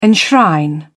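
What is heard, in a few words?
"enshrine", British English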